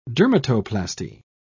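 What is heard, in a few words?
"dermatoplasty", British English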